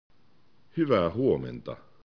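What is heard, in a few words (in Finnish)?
"huomenta", Finnish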